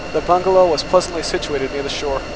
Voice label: Noisy Speech F16 Noise